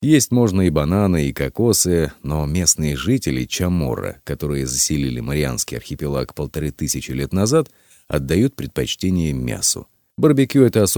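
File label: programma Russian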